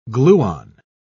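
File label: "gluon", English, British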